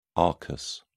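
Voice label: "arcus", English, British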